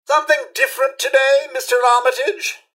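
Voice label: hello, robot; rivetcity; mrhandy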